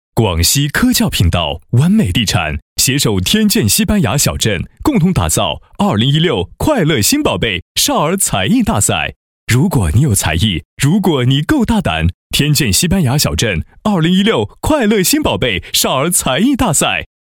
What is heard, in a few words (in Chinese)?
男, 节目宣传 少儿才艺大赛宣传配音; 广西科教频道《完美地产》